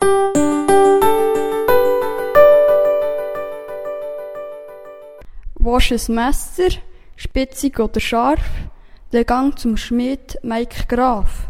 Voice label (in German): Werbespot Messer; Muensingen; schulzentrum rebacker in muensingen